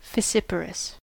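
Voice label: "fissiparous", US English; pronunciations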